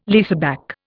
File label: "leaseback", Indian